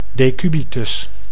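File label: "decubitus", Dutch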